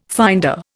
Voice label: "finder", Indian

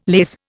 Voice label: "laze", Indian

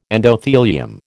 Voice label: "endothelium", American

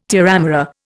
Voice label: "terramara", Indian

